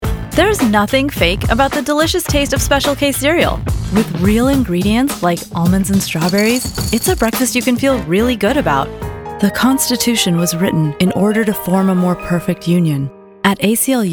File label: Commercial